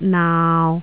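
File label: "naau6", Cantonese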